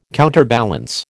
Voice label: "counterbalance", American

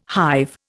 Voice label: "hive", Indian

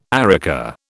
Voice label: "areca", British

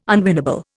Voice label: "unwinnable", Indian

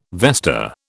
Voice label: "vesta", British

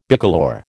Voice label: "bicolour", American

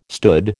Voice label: "stood", American

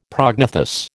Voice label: "prognathous", American

